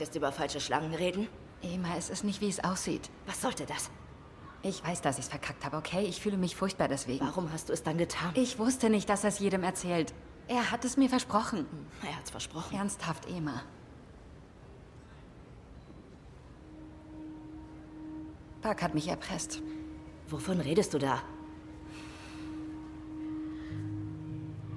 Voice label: Teenagerin; Synchron; wütend / enttäuscht

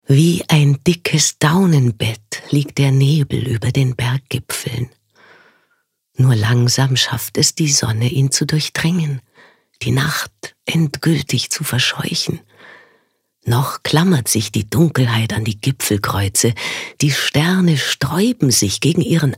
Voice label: Schauspiel Sanft; Morgens

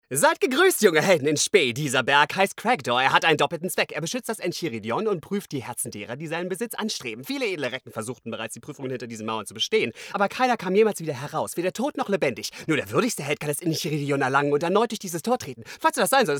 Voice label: Charge Comic

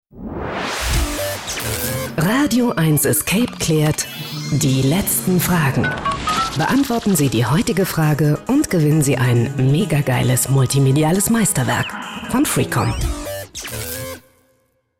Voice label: radioeins Escape Jingle; Die letzten Fragen

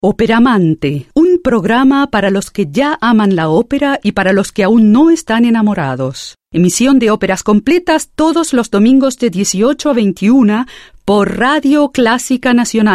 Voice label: Suedamerk. Spanisch Werbung; Lateinamerikanisch (neutral); Opera

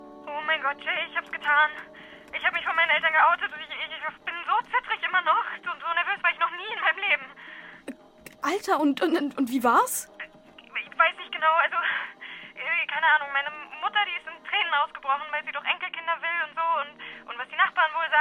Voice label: Telefonat

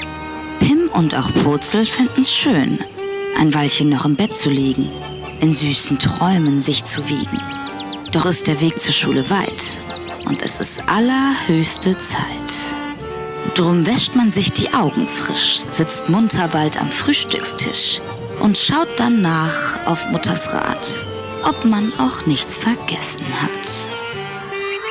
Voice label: Sprachdemo Zwerge

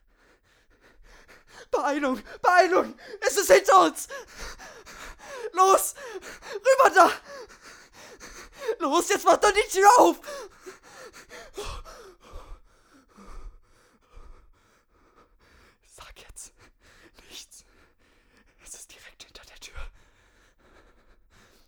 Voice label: Panik und Fluestern

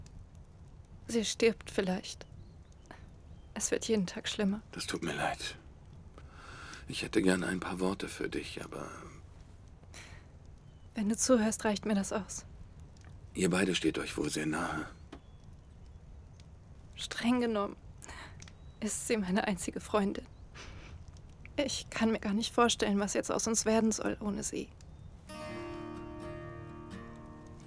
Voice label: Traurig; Synchron

